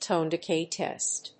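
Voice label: "tone decay test", English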